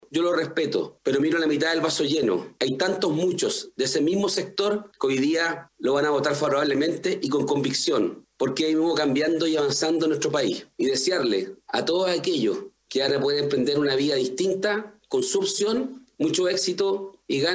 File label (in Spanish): cuña